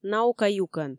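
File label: "наука юкӧн", Komi, female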